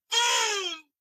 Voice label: man saying boom

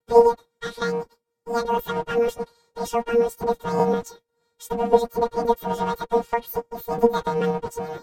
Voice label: robot; custom fnaf fongai phjone guy

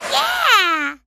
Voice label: girl yeah

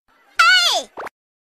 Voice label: aye sound, aye happy

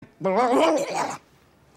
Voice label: jibberish; steve carell

